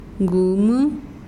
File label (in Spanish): "casa", mazahua